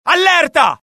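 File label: alert, Italian; mohaa; dialogue; sighted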